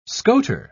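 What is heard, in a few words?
"scoter", British English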